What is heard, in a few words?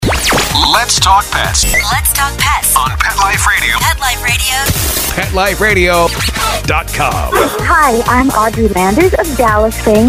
Generic Sweeper; PetExpo